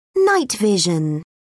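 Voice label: "night vision", English, British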